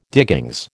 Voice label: "diggings", American